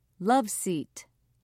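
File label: "love seat", British English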